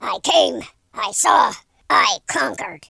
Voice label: victory, War General; speech